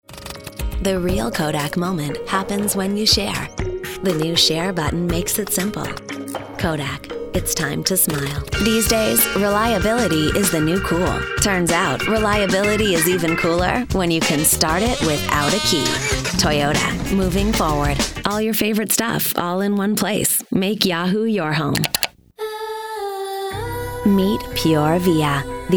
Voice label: commercial